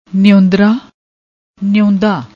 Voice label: "neeoondraa", Punjabi; ਨਿਓਂਦਾ

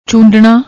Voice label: "choondna", Punjabi; ਚੂੰਡਣਾ

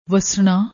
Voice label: "vasna", Punjabi; ਵੱਸਣਾ

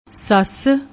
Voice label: "sass", Punjabi

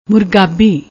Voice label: "moorgaabi", Punjabi

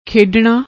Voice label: "khedna", Punjabi

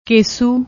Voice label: "kesu", Punjabi; ਕੇਸੂ

